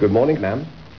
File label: good morning ma'am, Male voice, "Good morning, Ma'am."